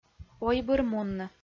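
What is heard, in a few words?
"ойбырмунны", Komi, female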